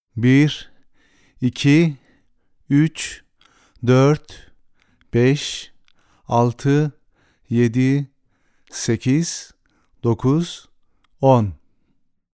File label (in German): Türkei Zahlen